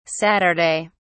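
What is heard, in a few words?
"saturday", British English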